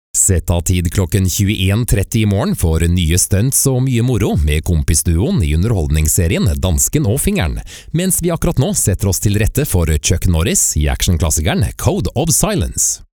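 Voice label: norwegian male narration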